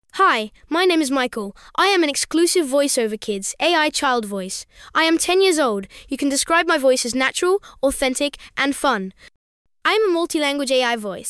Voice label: boy